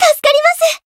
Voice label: Voice healed jp

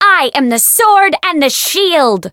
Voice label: Voice chant

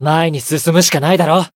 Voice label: win, jp

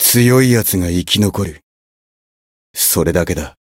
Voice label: win, jp; jade

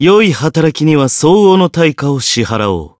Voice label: variable chant, jp; zeviro

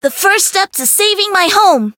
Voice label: Voice variable chant; kikyou

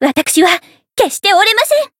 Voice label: Voice encount fear; miiyu

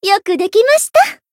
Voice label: finish, jp; ilulu

